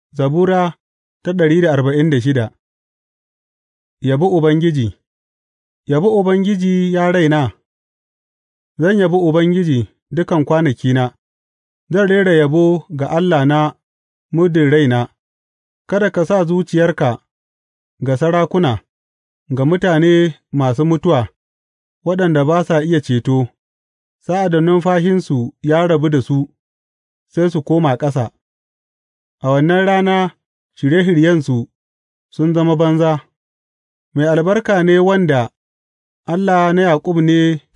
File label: hausa bible drama, psalms; hausa bsn nt drama